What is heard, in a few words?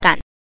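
"gan", Mandarin Chinese pinyin, neutral tone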